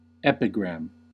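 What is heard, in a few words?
"epigram", US English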